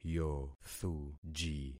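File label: "yothuji", concatenated syllables; Affixing experiment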